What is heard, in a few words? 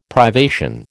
"privation", American